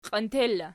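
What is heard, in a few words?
"qientier" locative, Archi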